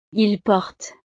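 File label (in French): "ils portent", French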